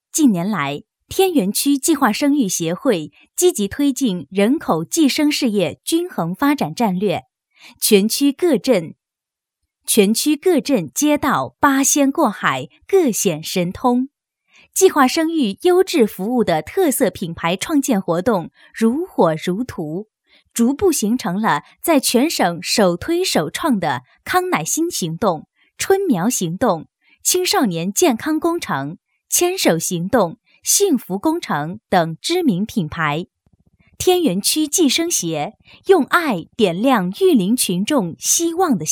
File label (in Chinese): 女, 解说; 天元区计生协会